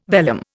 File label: "vellum", Indian